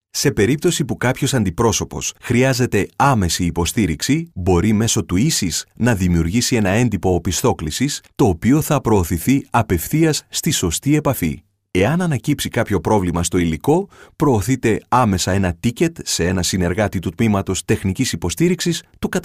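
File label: Greek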